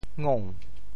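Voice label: "ngou2", Teochew; 潮州; 未食五月粽，破襦毋甘放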